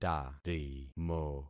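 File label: "tarimo", concatenated syllables; Affixing experiment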